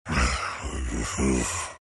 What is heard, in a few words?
anger; dota; earth spirit